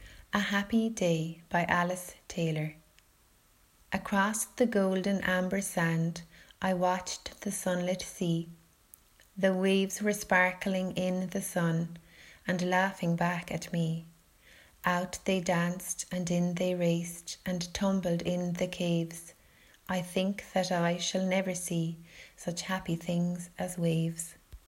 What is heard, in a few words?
poem